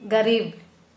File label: Kera Mundari F poor